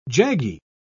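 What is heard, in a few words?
"jaggy", British English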